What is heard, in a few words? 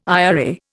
"IRA", Indian